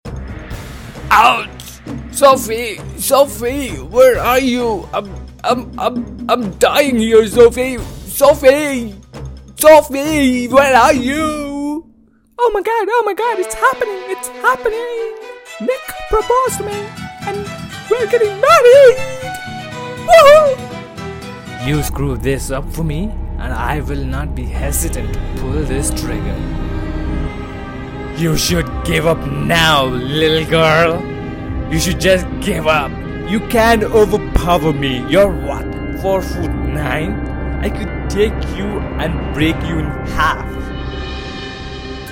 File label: Animation, VOICE OVERS